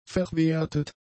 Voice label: "verwertet", German; adjectives